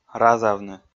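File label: "разавны", Komi, male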